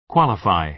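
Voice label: "qualify", American English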